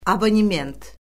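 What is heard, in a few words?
"abonement", Azerbaijani; Orfoqrafiya Lüğəti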